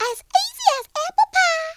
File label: "flawless", Southern Belle; speech